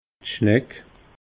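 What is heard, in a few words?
"schneck", Alsatian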